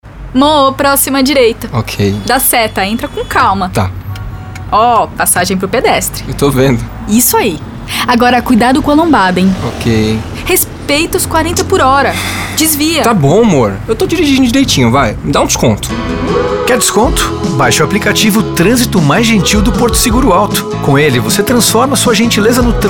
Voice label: Spot; Porto Seguro

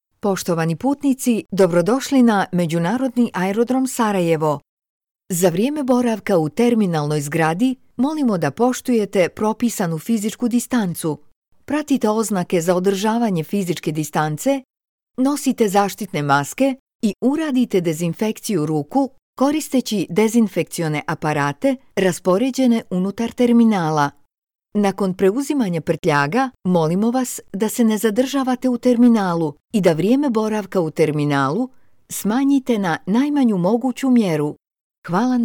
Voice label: Airport announcement; BOS

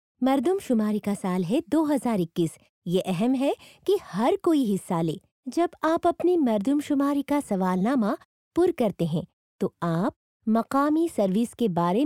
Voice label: Urdu Informative TV Announcement; Census 2021

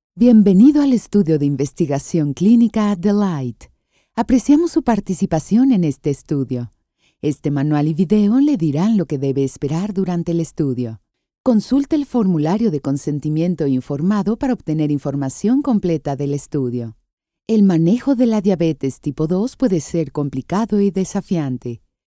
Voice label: Spanish E learning

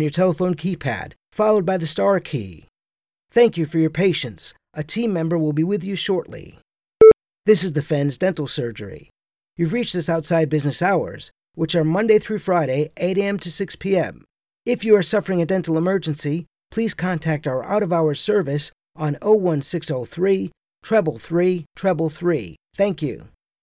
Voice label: Phone Msg On Hold

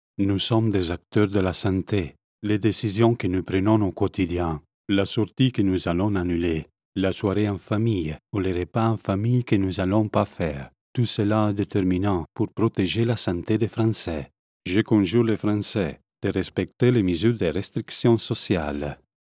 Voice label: FRENCH ITA ACCENT; COVID-19 Restrictions notice

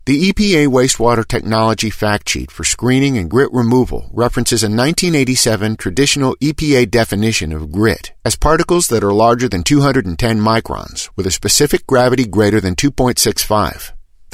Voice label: eLearning Technical Terminology

